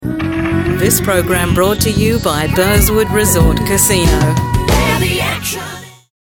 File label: Promo